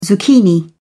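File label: "zucchini", British English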